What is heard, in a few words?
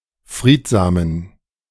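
"friedsamen", German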